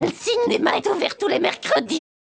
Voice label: synth